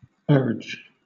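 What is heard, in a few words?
"urge", British English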